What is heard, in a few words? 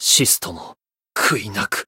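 Voice Japanese; shion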